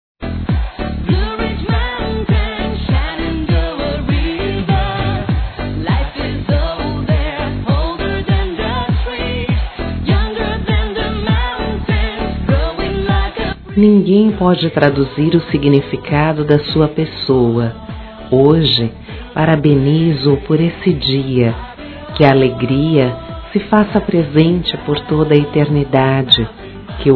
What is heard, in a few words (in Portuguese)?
SOGRO F, Telemensagens Dia dos Pais; Telemensagens 2017